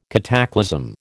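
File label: "cataclasm", American English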